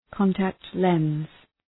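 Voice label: "contact lens", English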